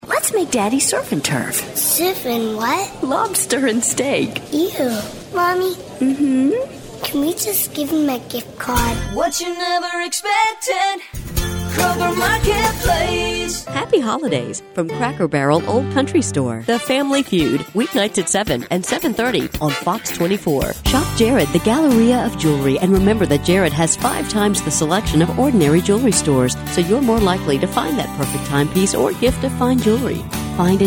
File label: Commercial Radio And Television